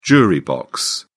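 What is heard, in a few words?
"jury box", British English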